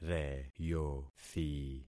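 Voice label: "reyothi", concatenated syllables; Affixing experiment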